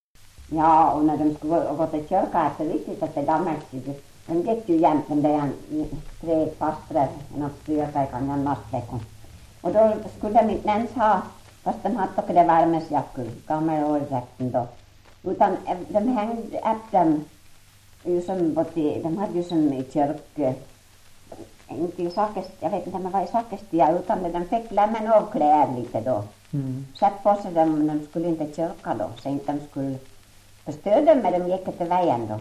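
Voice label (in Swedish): Ål Dalarna, Svenska sagor, dialekter; Folkminnen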